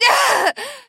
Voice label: pain death; female